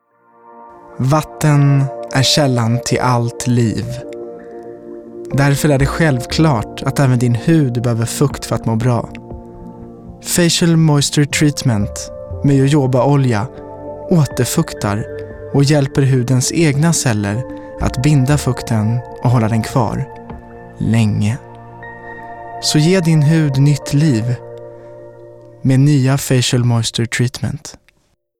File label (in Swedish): Swedish Neutral Commercial Skin Care